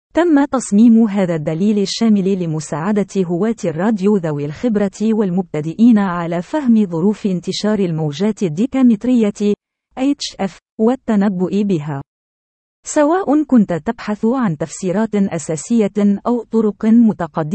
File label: Arabic